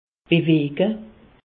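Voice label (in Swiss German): "beweje", Alsatian; medecine; bouger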